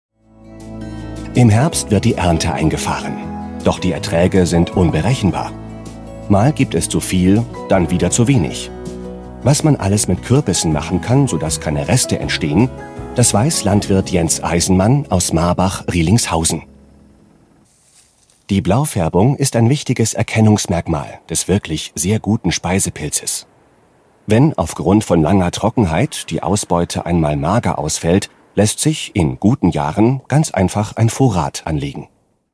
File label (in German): doku